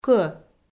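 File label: "кӧ" Komi, female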